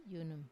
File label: "yunum", I drink, Wamesa